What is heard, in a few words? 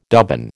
"dubbin", American